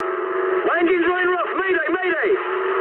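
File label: English damaged engine mood high; War Thunder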